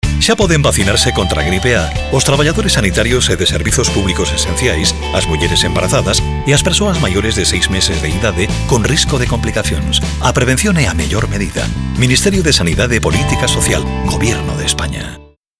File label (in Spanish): GALLEGO